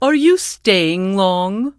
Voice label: Tuskarr Female Pissed; NPC Tuskarr Female Warrior